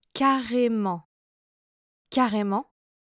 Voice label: french phrases